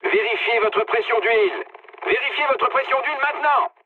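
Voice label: damaged oil, mood medium, French; War Thunder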